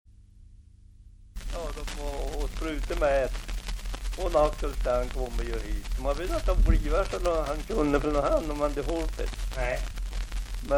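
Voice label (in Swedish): Aspås Jämtland, svenska sagor dialekter; Folkminnen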